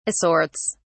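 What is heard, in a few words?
"assorts", British English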